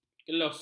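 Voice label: "kelos", Malay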